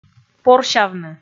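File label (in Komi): "порсявны" Komi, female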